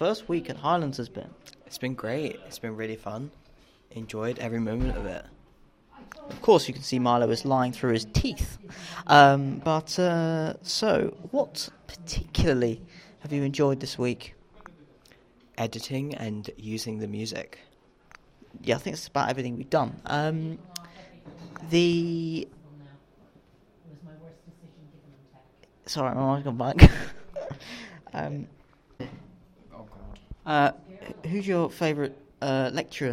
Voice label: bad interview example